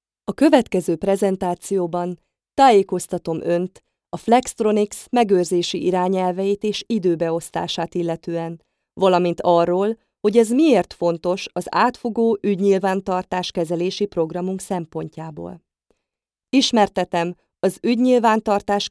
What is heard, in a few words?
hun e-learning